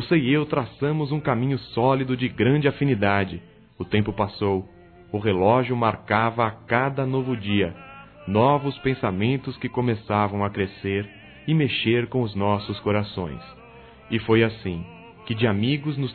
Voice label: AMIZADE QUE VIROU PAIXÃO, Telemensagens, Masc

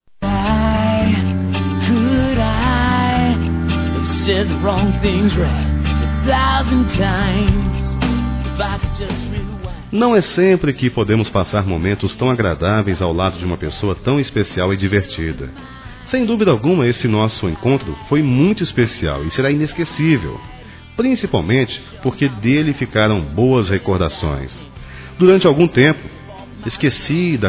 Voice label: Obrigado por Ontem Masculino, Telemensagens; Telemensagens 2017

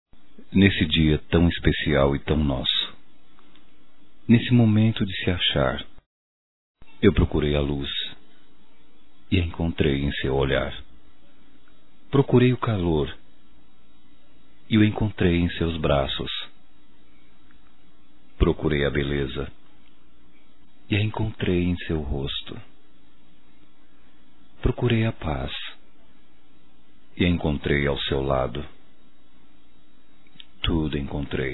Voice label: voz Anivers. Namoro ou Casamento, masc; Telemensagens; Só Voz; Telemensagens 2017

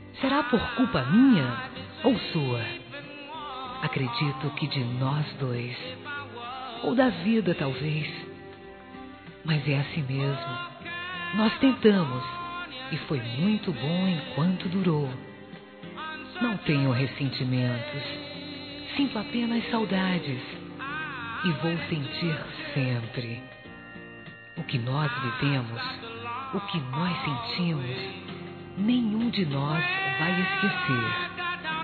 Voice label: Para Ex Feminino, Românticas; Telemensagens

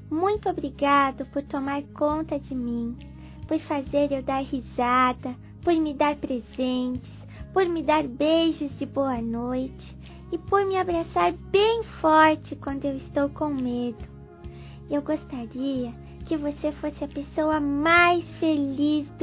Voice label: Aniversário Pai Infantil Feminino; Telemensagens

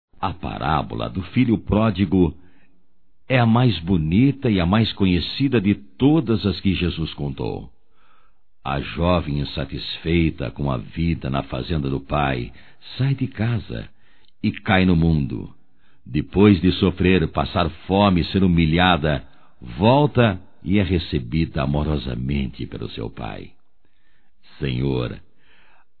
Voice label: EVANG ANIVERSÁRIO DE FILHA - M; Telemensagens 2017; Aniversarios

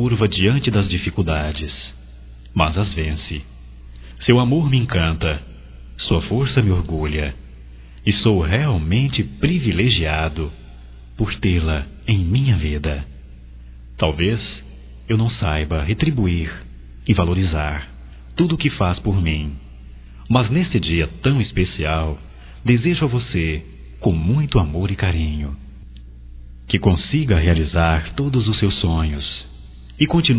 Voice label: FILHO ENVIA (M); Telemensagens 2017; Dia das Maes

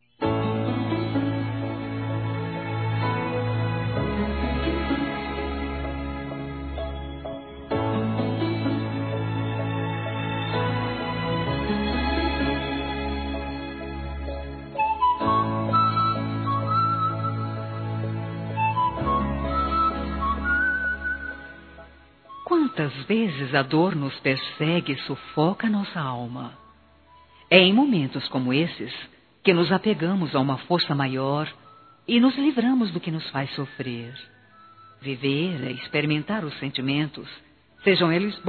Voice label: Conforto Amigo Feminino; Telemensagens; Telemensagens 2017

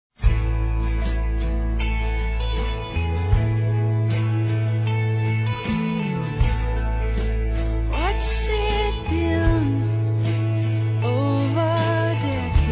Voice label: Aniversário Ex Esposo Feminino; Aniversários

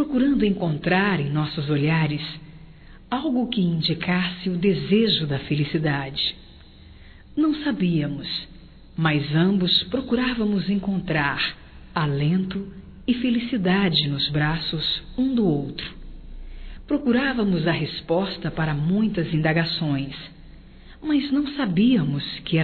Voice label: NAMORO, Telemensagens, Aniversarios, fem; Telemensagens 2017

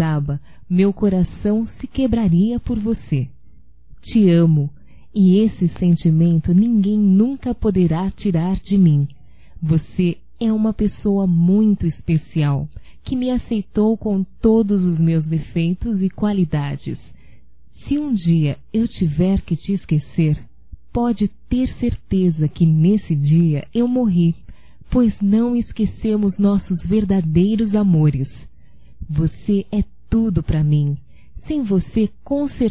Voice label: Não Vá Embora Feminino; Despedidas e boas vindas; Telemensagens 2017

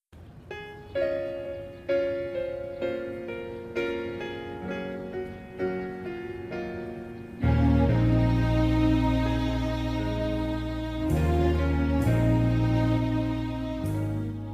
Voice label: mae amiga do filho, Masc; Dia das Mães; Telemensagens 2017

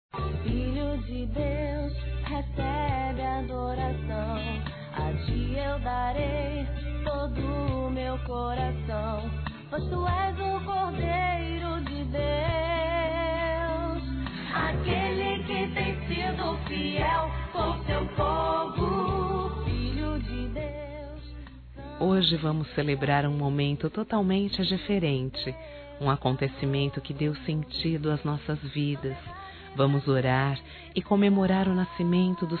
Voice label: NATAL E ANO NOVO IRMÃO EM CRISTO F; Telemensagens; fem; Misto

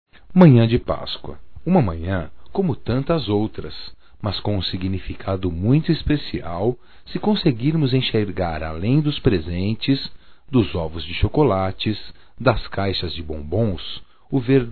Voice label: DIA INTER DA MULHER ROM. M, Só Voz, masc; Telemensagens; Telemensagens 2017

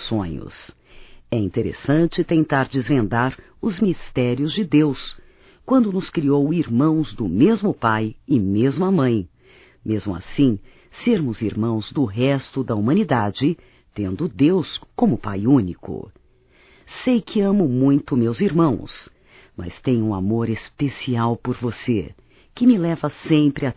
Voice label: Aniversário Feminino, Telemensagens

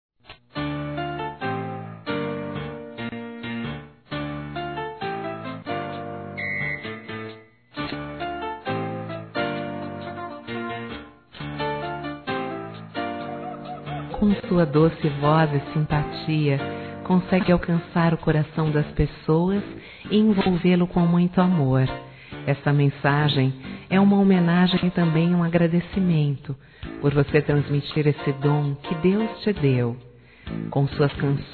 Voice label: CANTORA F; fem

